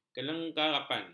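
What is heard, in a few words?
"kelengkapan", Malay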